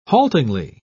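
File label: "haltingly", British English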